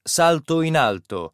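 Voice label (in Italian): "Salto in alto", Italian word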